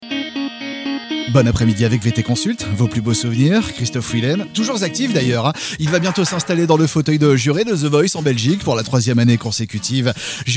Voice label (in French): adulte; VT Consult